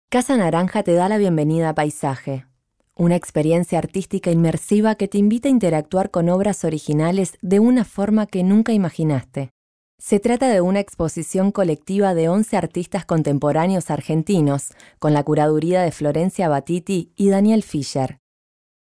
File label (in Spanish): audioguia; PAISAJE